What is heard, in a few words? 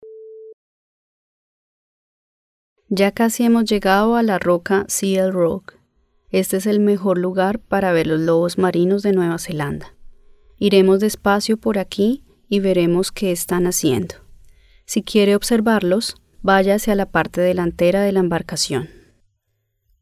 Spanish; Milford Sound; Seal Rock